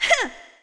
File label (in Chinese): 女人 激励; renlei